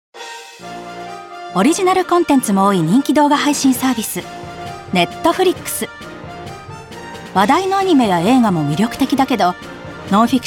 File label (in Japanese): バラエティ ソフト 中音 優しい 「ランキング」